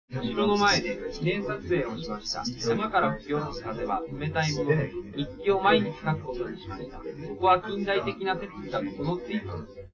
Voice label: male live rec 1m sim; SASSEC07